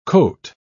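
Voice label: "cote", British English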